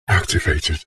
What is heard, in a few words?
science fiction computer console voice robotic male says "activated"